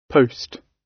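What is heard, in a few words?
"post" British English; Time, history; Thời gian, lịch sử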